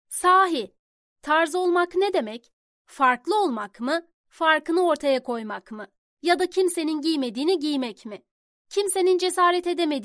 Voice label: reklam ses

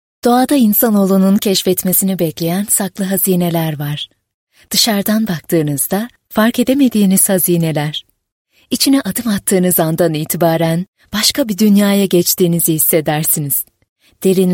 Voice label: belgesel

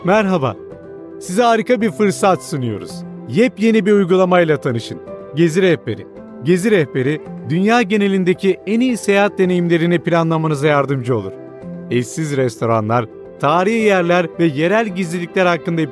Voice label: reklam

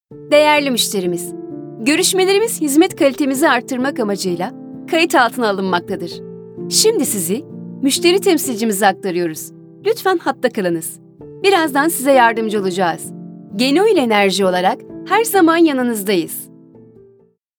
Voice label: musteri temsilcisine aktarma anonsu

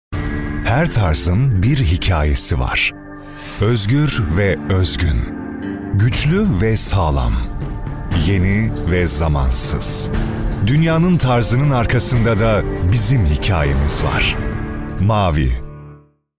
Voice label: reklam, Turkish, Male Young Adult

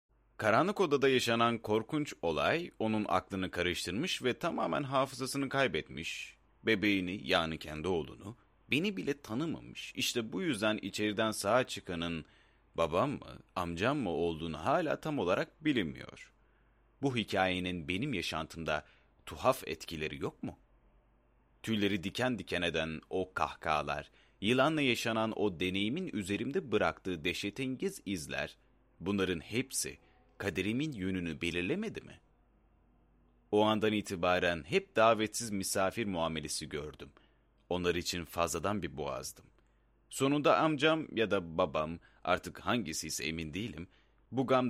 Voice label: kitap seslendirmesi

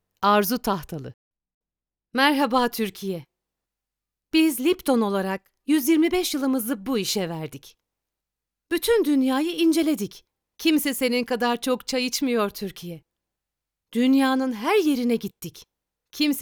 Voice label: reklam

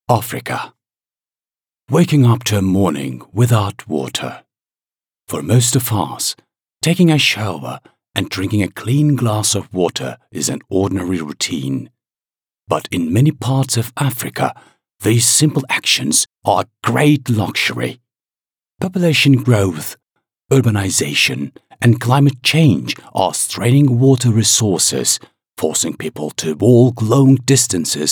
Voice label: ingilizce

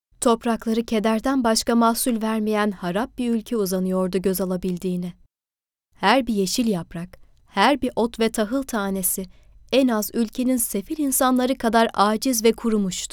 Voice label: kitap ses

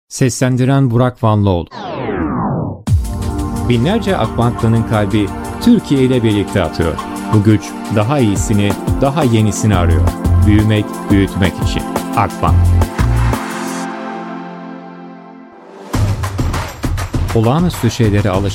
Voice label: banka reklami

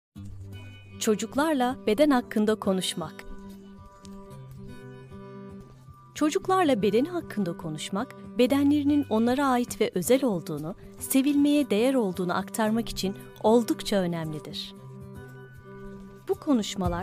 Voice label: cocuk gelisimi egitim seslendirmesi

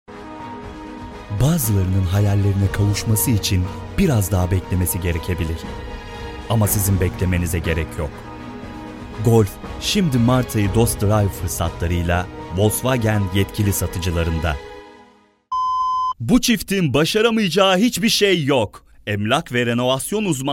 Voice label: reklamlar

